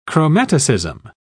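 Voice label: "chromaticism", British English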